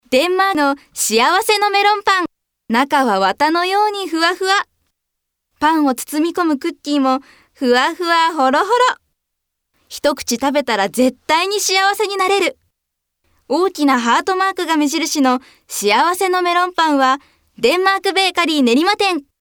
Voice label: narration